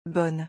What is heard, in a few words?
"bonne", French